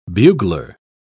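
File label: "bugler", British English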